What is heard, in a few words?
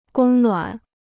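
"供暖", Chinese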